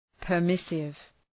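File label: "permissive", English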